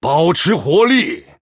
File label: Male Human Attack, zh